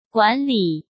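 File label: "管理", Mandarin Chinese; chinese flashcards; hsk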